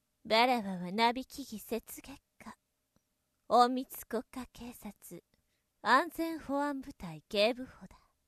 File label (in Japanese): ボイスサンプル, 女, 19歳, 和風; 性別:女; 性格:和風; 年齢:19歳; 靡木 雪月花(なびきぎ せつげつか); 隠密国家警察安全保安部隊警部補; 「妾は靡木雪月花、隠密国家警察安全保安部隊警部補だ」